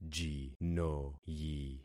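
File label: "jinoyi", concatenated syllables; Affixing experiment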